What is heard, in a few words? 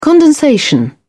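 "condensation", British English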